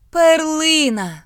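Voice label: "pearl", British English; gcompris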